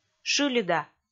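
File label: "шыльыда", Komi, female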